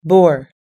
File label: "bore", US English